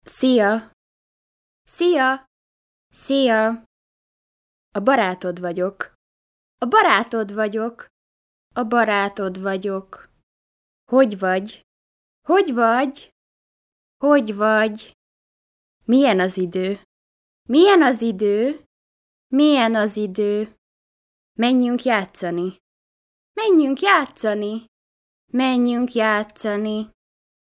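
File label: Hungarian woman 26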